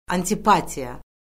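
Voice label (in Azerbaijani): "antipatiya", Azerbaijani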